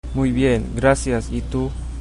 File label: i'm fine, chabacano